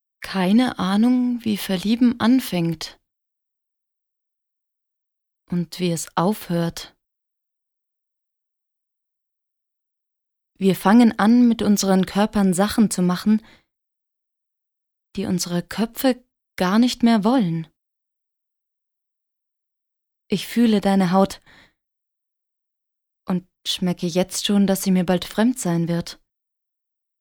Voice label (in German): Monolog